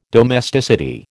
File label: "domesticity", American